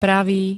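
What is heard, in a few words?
"pravý", Slovak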